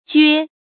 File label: "jue1", pinyin